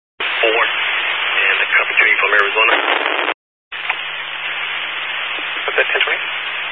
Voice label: Highway Patrol, Phoenix Metro West Area, scanner

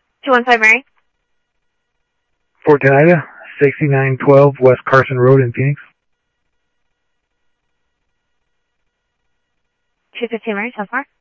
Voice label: Sheriff Central, scanner audio

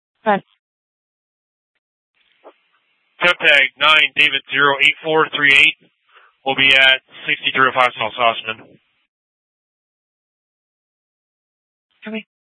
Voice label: Superstition Dispatch, Mesa PD

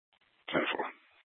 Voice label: PD Dispatch

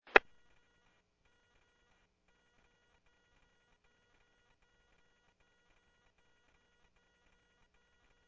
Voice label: Medevac, scanner; PHIAIREVACHelRad

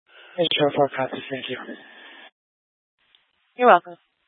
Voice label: Fire Departments Mesa Simulcast; TOPAZ

